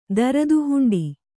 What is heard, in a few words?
"ದರದುಹುಂಡಿ", kannada